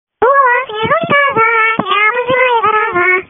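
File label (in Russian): голос из унитаза тебя вызывает зараза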